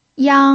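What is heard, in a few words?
"yang1", Mandarin Chinese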